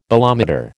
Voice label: "bolometer", American